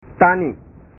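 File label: "tani", Indonesian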